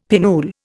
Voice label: "pinnule", Indian; Dictionary